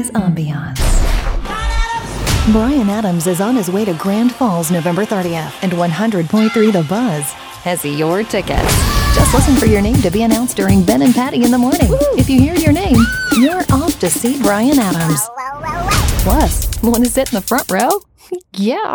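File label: Radio Imaging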